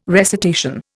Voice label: "recitation", Indian